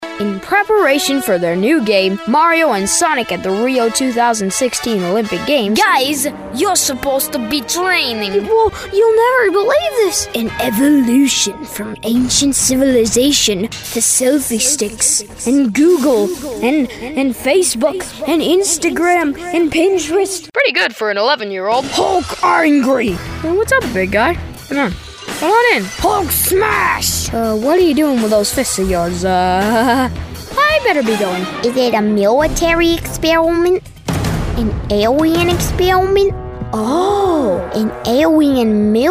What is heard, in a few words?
kids animation